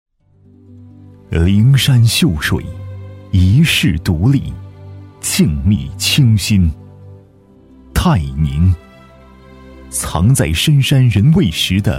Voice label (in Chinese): 男 宣传; 泰宁文创基地